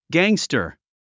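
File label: "gangster", English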